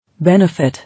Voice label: "Benefit", English